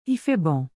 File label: "Y fait bon", Québécois French